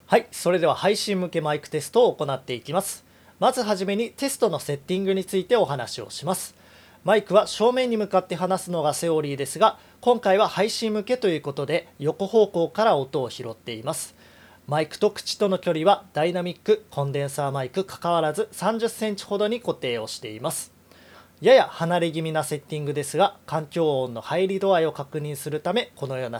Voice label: PODMIC; haishin; コンデンサー型、ダイナミックマイク、チューブマイク...と種類に加えて様々なメーカーから様々なマイクが発売されているマイク。